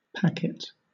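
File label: "packet", British English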